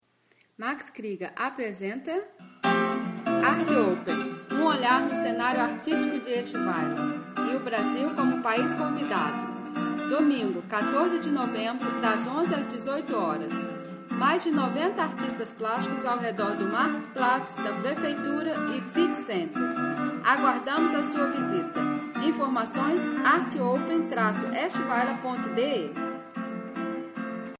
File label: Radiospot